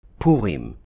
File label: "Purim", Hebrew